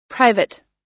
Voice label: "private", us

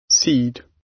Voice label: "seed", gb

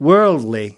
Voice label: "worldly", us

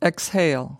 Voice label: "exhale", us

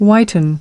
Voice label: "whiten", us

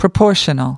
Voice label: "proportional", us

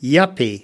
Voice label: "yuppie", us

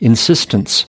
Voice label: "insistence", us

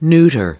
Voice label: "neuter", us

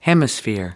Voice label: "hemisphere", us

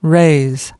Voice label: "raise", us

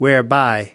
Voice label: "whereby", us

